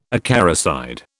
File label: "acaricide", British